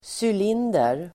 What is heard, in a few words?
"cylinder", Swedish